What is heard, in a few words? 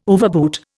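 "overboot", Indian English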